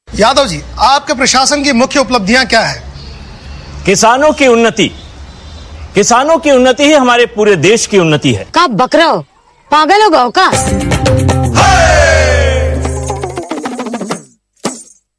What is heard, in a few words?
Dialogue Ringtone; Peepli Live